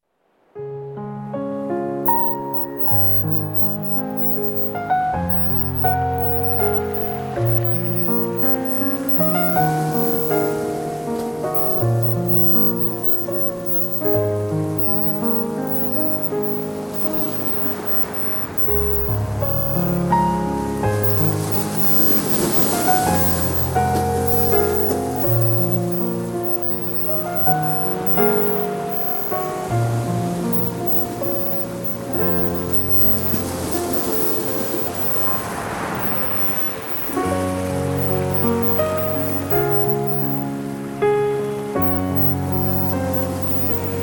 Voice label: wealth sea piano affirmations